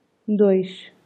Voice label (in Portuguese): dois, portugal